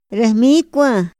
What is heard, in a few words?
"ra hmikua", Otomí; INPI; INALI